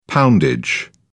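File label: "poundage", British English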